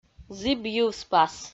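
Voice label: "зіб йыв спас", Komi-Zyrian, female; audio dict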